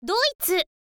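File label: "doitsu", Japanese, girl; voice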